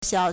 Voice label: "xiao3", Chinese